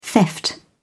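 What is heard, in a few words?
"theft", British English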